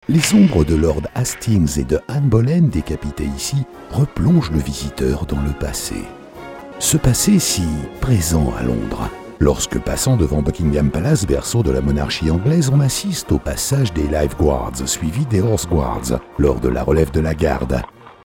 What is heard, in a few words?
IMPOSTATO, Francese, speakers